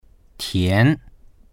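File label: "tian2", Mandarin Chinese; 甜; 甘い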